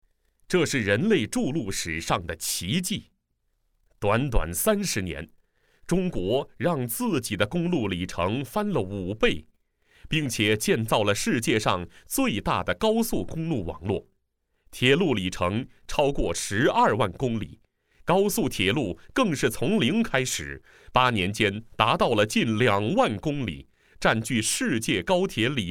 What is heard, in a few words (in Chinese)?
宣传介绍